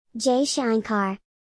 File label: Jay Shankar Foreighner Kid Voice Ringtone